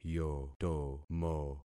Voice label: "yotomo", concat syllables; Affixing experiment